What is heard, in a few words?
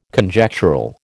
"Conjectural", American